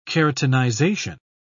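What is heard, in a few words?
"keratinization", British English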